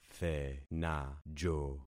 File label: "thenajo", concat syllables; Affixing experiment